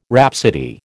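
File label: "rhapsody", American English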